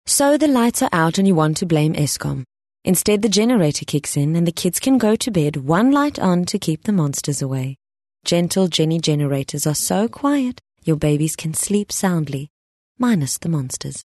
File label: Soft Sell South African Johannesburg